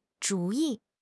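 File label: "zhu3 yi5", Mandarin Chinese; HSK4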